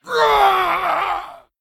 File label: Male Dragon Emote Death